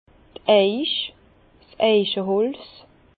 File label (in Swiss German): "eiche", Bas Rhin